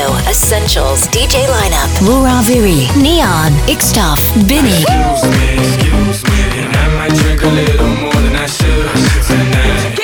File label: party commercial; topradio essentials